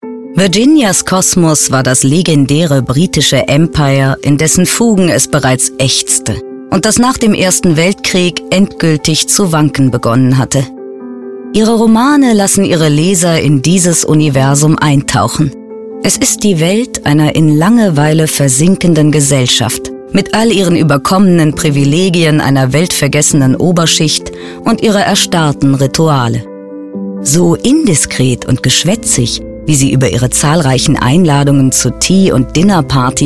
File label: german narration